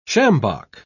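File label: "sjambok", British English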